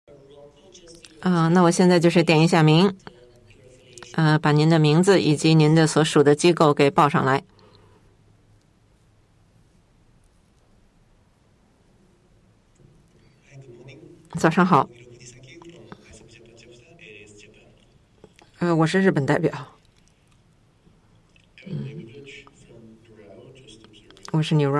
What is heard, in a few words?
zh; losangeles2014